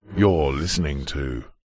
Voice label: multimedia radio male voice says your listening to processed